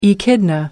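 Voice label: "echidna", British English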